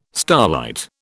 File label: "starlight", British